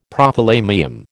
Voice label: "Prothalamium", American